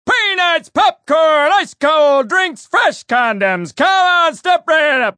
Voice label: Sports Peanuts, Male; Talking; Voice Box